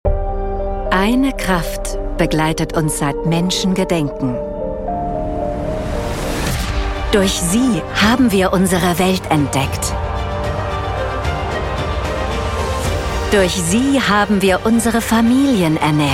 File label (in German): Werbung Windenergie